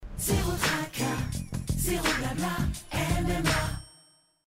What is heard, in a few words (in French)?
pub l'assurance multirisque professionnelle; mma